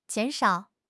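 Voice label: "jian3 shao3", Chinese; HSK4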